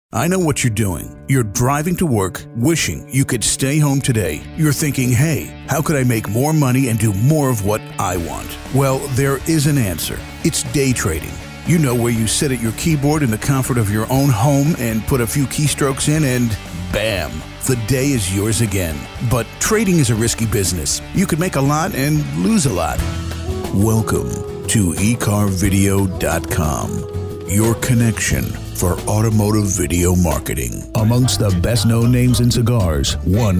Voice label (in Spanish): comercial inglés USA